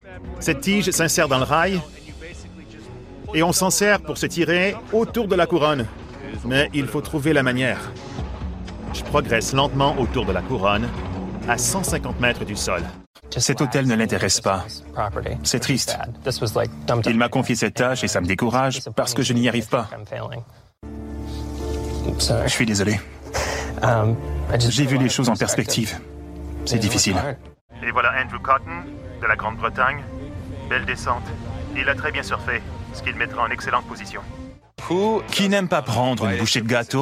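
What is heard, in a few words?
Canadian French; Demo; voice demos; GoLocalise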